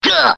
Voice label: "gah", cartoon; ooh ahh